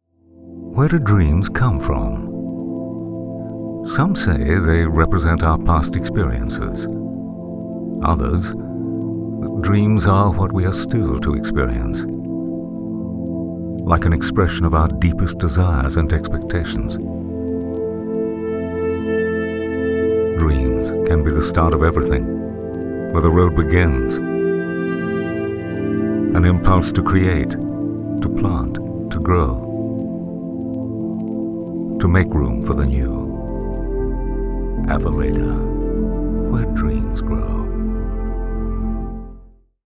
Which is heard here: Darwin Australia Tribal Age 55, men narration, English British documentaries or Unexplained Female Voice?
English British documentaries